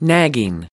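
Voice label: "nagging", American English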